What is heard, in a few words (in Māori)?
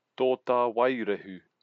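"totawairehu", Māori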